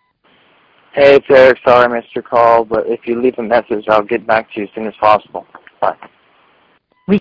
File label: phone greeting